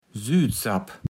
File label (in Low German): "Sütsapp", platt